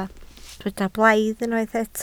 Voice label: "blaidd", Welsh

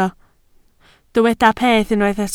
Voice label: "peth", Welsh

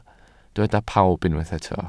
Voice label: "pawb", Welsh

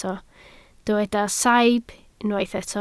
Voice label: "saib", Welsh

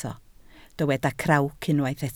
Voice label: "crawc", Welsh

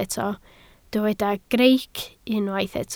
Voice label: "greic", Welsh

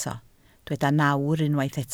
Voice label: "nawr", Welsh

